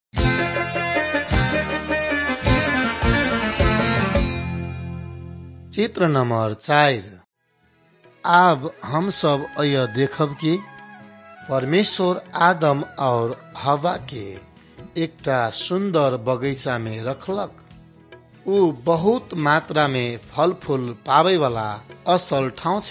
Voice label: maithili thetiya

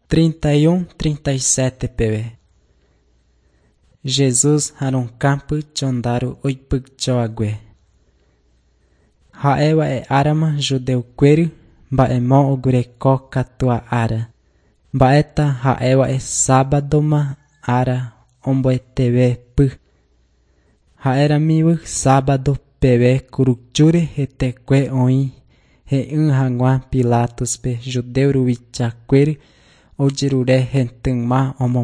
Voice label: john guarani mbya, bible

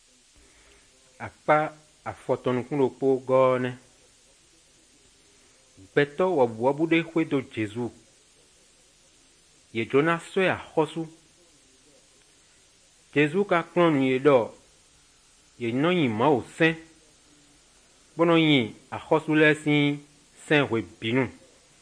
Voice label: good news, fon fongbe bible drama

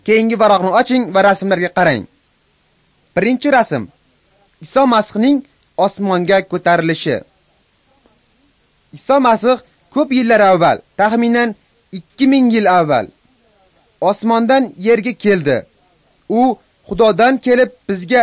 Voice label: uzbek northern; acts of the holy spirit

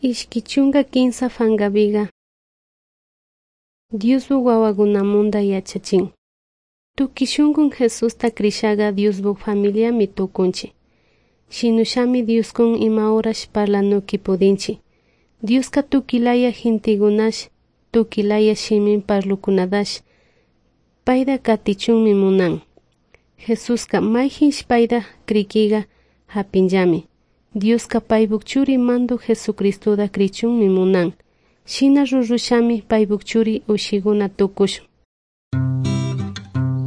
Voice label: good news quichua de salasaca

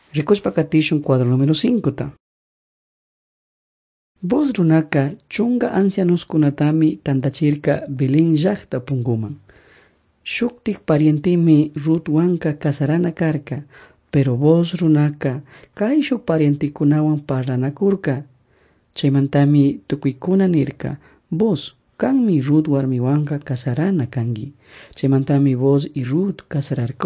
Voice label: servants of god quichua chimborazo

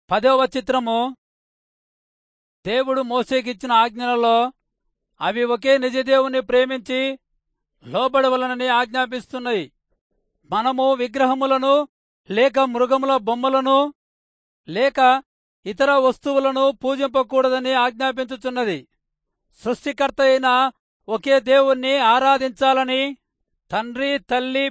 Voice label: telugu rayalseema; good news

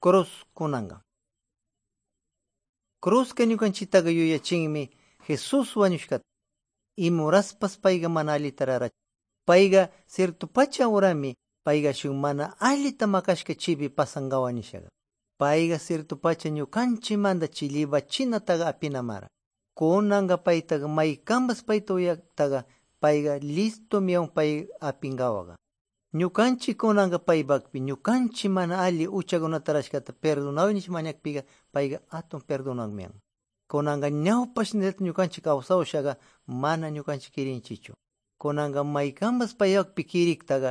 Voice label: good news quichua de pastaza; quechua; bible

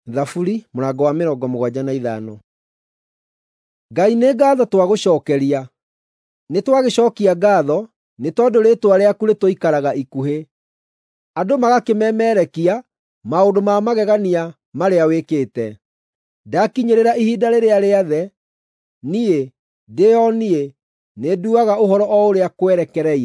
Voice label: psalms, gikuyu drama

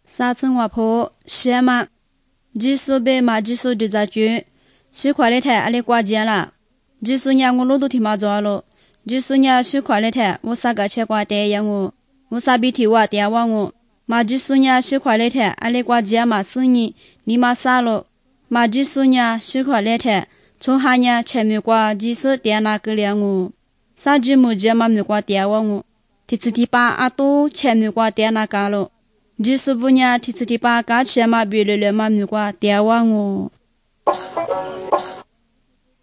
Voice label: good news lisu southern, drama

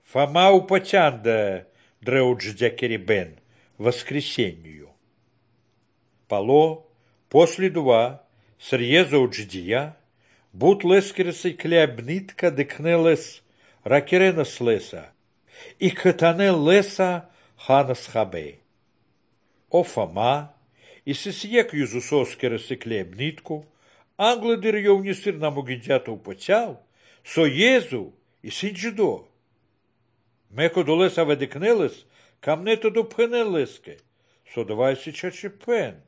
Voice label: good news baltic romani

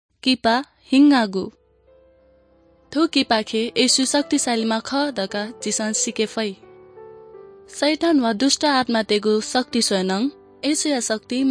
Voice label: good news newari bhaktapur

